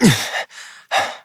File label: Operator Voice, en; Operator Mission; Generic Land